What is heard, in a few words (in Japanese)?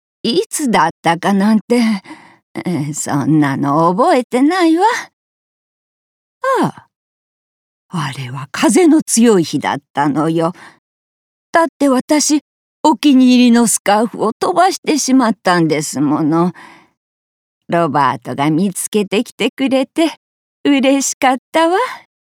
穏やか老婆